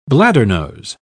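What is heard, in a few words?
"bladdernose", British English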